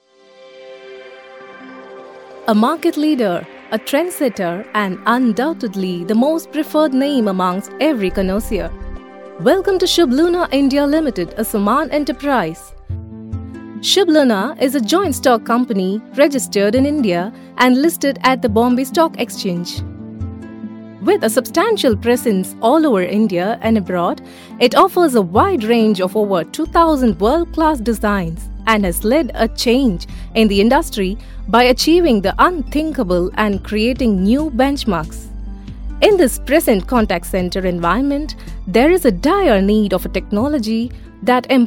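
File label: corporate english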